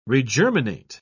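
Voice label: "regerminate", British English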